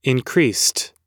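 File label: "increased", American English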